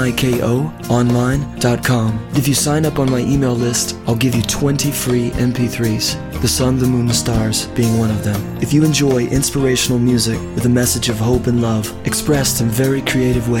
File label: bbs radio promo